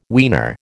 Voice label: "weaner", American